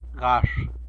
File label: "gax", tlacolula; near